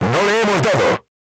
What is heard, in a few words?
voice message armor not pierce, crew tanks spanish